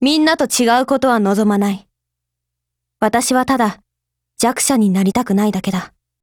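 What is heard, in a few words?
DIALOGUE, Japanese; OTs39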